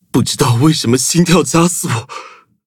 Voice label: levelup zh-hant; cookie run